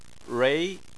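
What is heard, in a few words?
"rui", Chinese, tone 2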